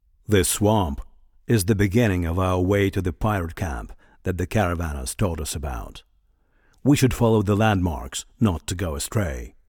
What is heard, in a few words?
Voice Dialog